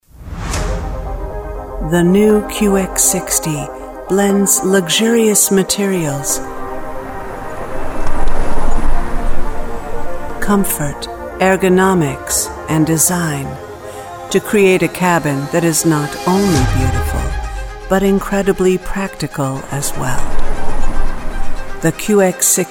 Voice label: Automotive Classy Refined Elegant Low tone, Commercial Voiceover